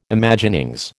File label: "Imaginings", American